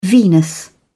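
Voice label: "venous", British English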